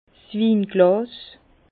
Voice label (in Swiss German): "winglas", Bas Rhin; vin; verre